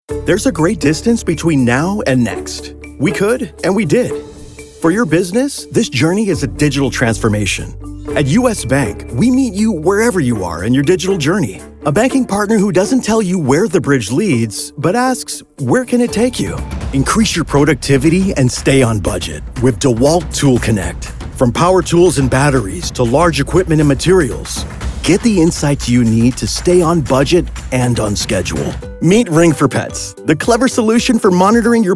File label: Corporate Narration